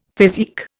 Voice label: "physique", Indian; Dictionary